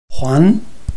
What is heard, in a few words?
"huan2", Chinese